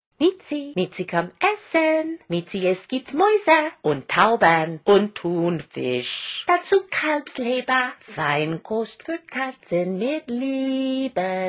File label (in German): frauchen deutsch, frauen aus aller welt